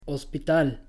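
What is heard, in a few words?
"hospital", Spanish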